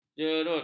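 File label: "jerut", Malay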